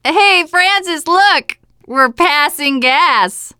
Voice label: gas tanks, teengirl, voice